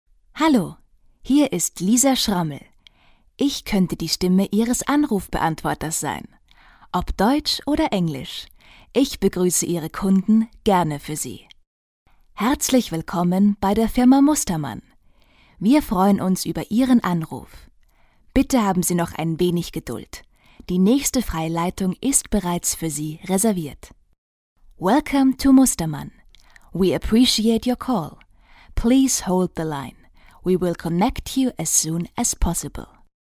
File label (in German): Sprecher Telefonansagen